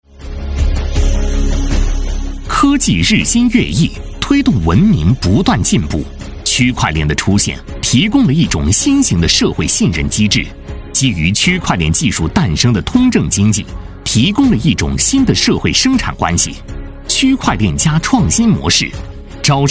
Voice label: 男, 科技感, 科技日新月异

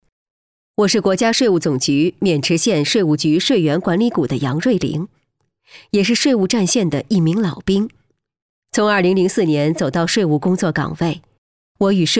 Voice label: 女 角色 成熟干练 40岁税务员独白

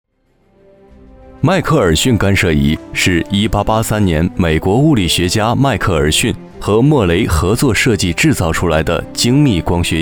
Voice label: 男 课件

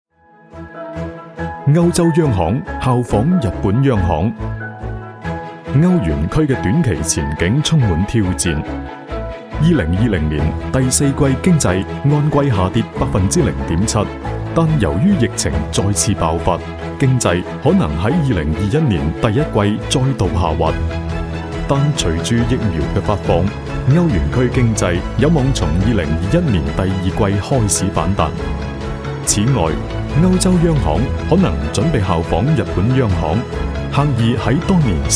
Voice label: 粤男,节目; 歐洲央行效仿日本央行

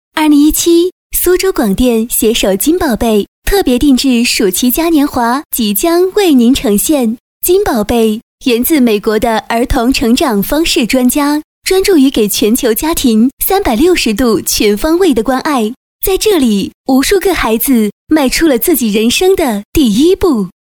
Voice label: 女 广告 温柔; 金宝贝

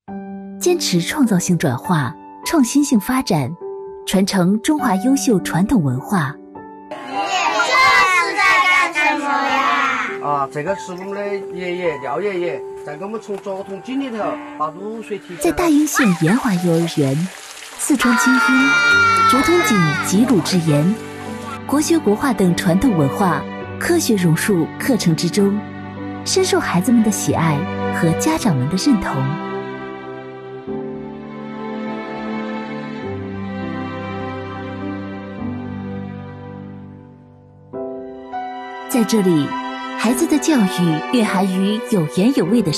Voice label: 女 宣传片 幼儿园

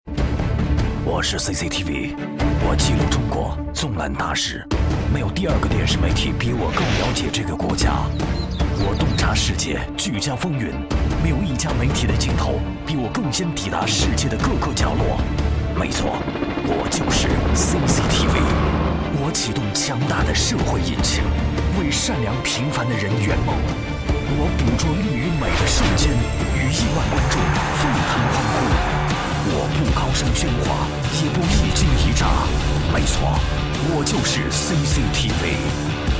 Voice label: 男 国语男声配音; CCTV招商开场大片

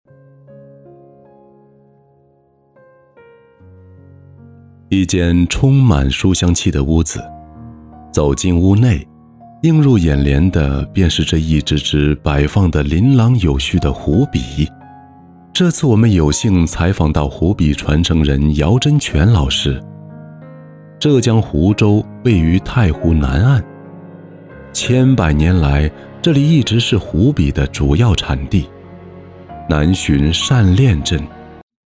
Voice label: 男 专题 轻松自然; 湖笔

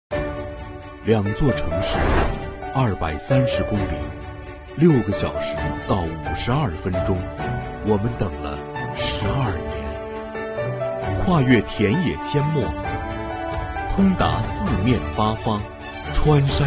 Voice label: 男 片头片花; 京哈高铁京承段开通运营片头

